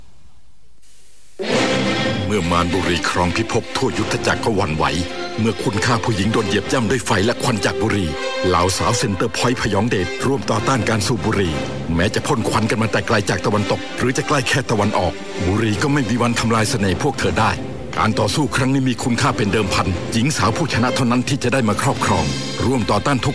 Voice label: radio smoking